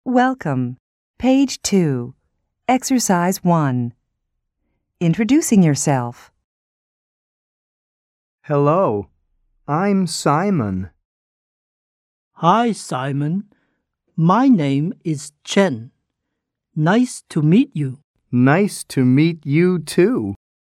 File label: conversation; Four Corners 1